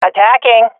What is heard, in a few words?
attacking, swat fem; vo; Counter Strike Model Pack; CS:GO